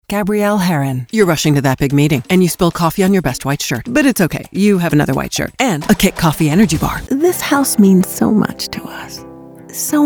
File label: Commercial Reel